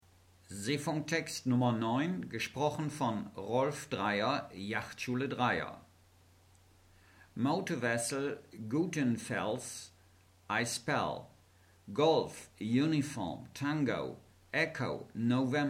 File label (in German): Seefunktext